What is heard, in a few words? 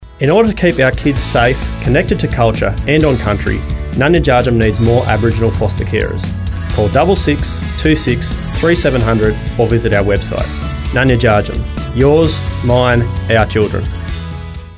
radio spot